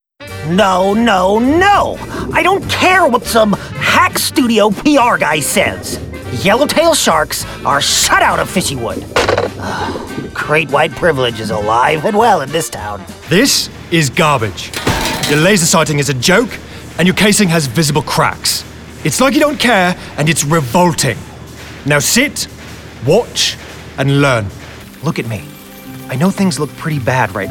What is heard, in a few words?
vo character